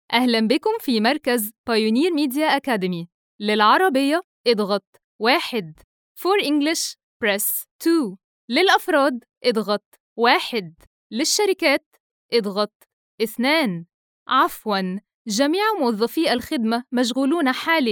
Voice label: IVR

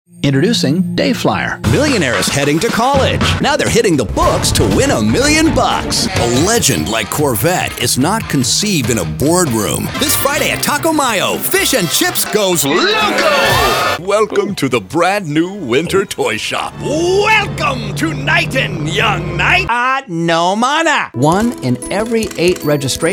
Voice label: COMBO VO REEL

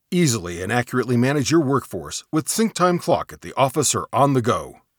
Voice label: Male VO for an employee time management app; Audition

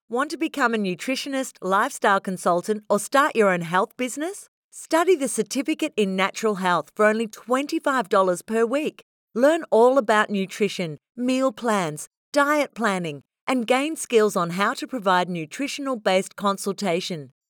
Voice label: On Line Course Health Advertisiment; English (North American)

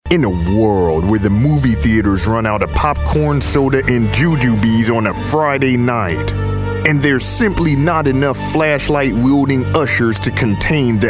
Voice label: Movie Trailer

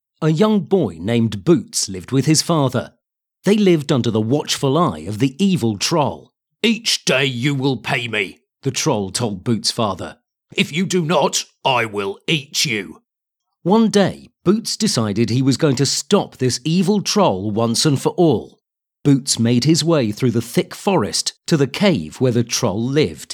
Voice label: audio book extract; Boots The Troll

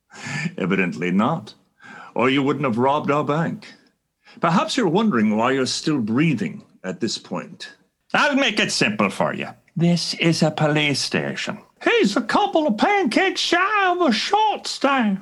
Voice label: Character Voices

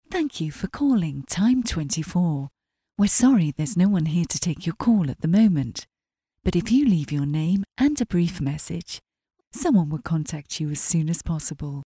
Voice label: Voice Greeting

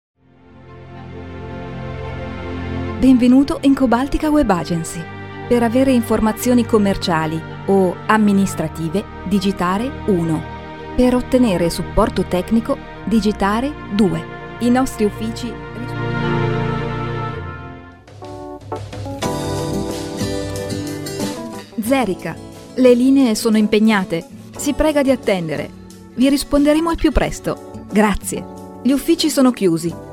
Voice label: IVR MUSIC

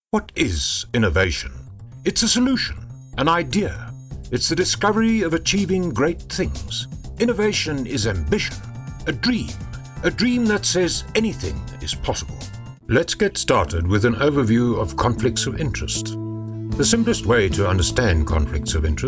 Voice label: Corporate and Mixed Dramatic; English (North American)

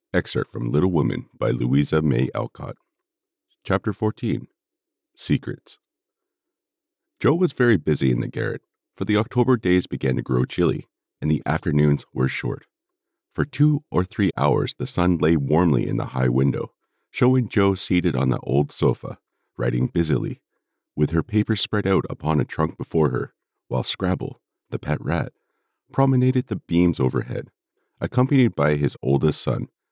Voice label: Narrator Test Script

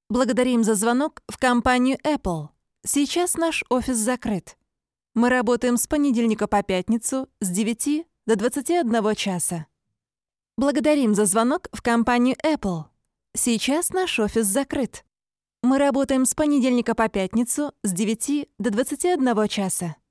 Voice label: Russian IVR

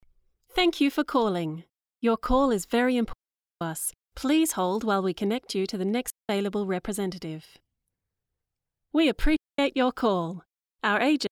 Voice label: telephone

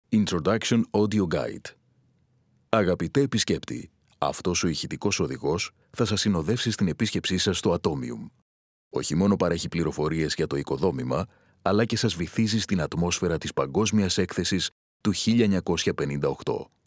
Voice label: audio guide

